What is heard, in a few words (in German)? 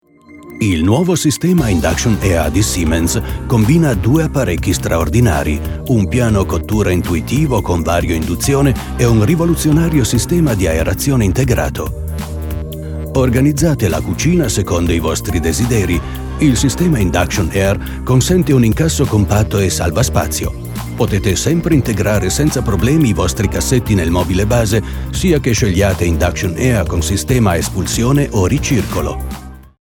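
Italian, Fremdtake Neutral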